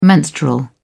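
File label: "menstrual", British English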